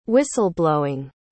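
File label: "whistle-blowing", English word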